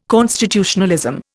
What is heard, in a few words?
"constitutionalism", Indian